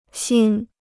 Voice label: "心", Chinese